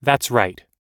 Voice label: everyday agreeing us